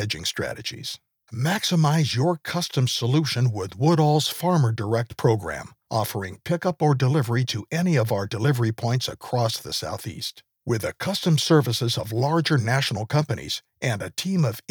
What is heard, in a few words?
Grain VO